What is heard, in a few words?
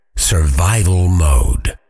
survival mode, Voiceover Fighter; Kenney's Sound Pack